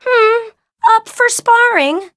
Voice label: touch, en; cookierunwiki

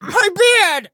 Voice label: hit, en; cookierunwiki

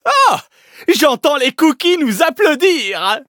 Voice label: levelup fr; Cookie Run

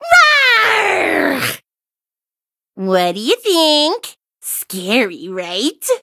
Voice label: victory, en; cookie run

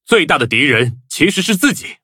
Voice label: loading, zh-hant; Cookie Run

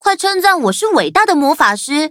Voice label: levelup zh-hant; Cookie Run

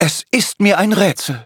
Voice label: defeat, de; cookierun

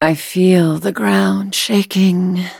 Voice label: battle en

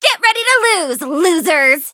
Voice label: battle, en; cookie run

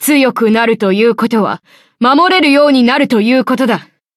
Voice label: levelup, ja; Cookie Run

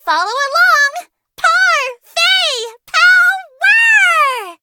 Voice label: touch, en; cookie run

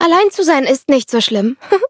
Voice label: loading, de; Cookie Run

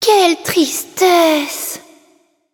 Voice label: defeat, fr; Cookie Run

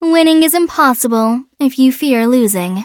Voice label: upgrade, en; Cookie Run

